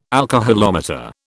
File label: "alcoholometer", British